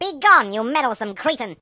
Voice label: "Leave me alone", British; hedgewars

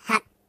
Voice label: Jump, Robot; hedgewars

